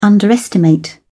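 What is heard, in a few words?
"underestimate", British English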